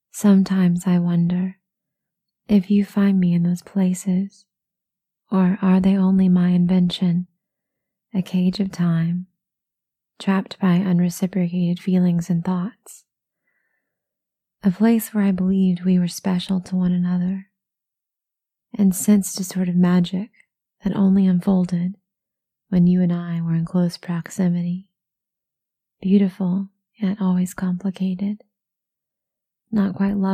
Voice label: [poetry reading]; original poetry; F4A; Unacclaimed; 700 subscriber thank you